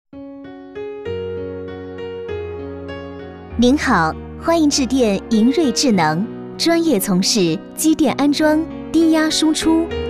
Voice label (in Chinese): 女; 银锐智能